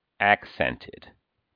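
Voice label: "accent", US English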